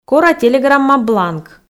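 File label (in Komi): кора телеграмма бланк, Komi, female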